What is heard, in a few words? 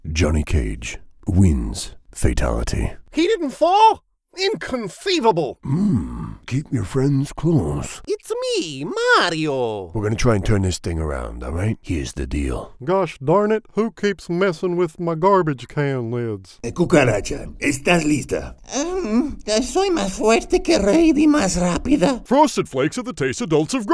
Character Samples